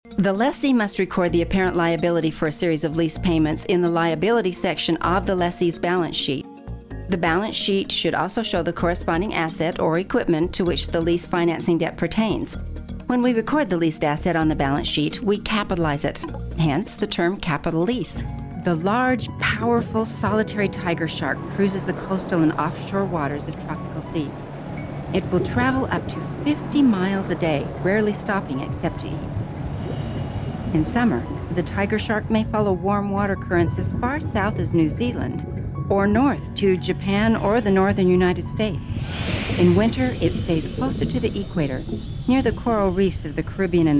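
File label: narration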